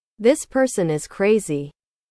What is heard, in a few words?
english